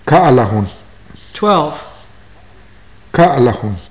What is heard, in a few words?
numbers, maya